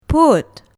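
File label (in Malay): "put", Malay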